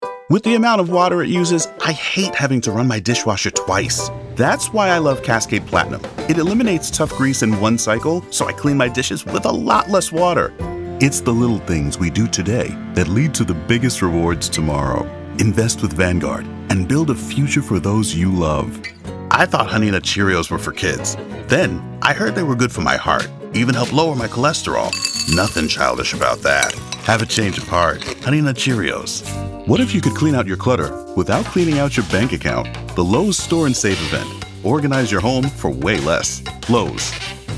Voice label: Commercial